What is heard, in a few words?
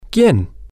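who, Spanish, male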